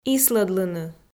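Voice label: "ислӧдлыны", Komi, female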